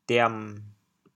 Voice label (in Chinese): "diam7", 潮州话; 橄欖尻川——坐毋惦; 潮阳; 坐不住、坐立不安; 俗语; 歇后语